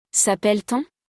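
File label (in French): "s appelle t on", French; conjugaison; le verbe s'appeler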